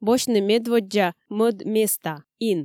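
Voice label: Komi, female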